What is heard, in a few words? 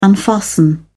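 "unfasten", English, uk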